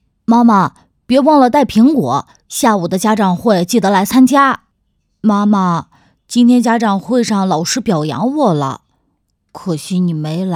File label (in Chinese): 角色类大龄男童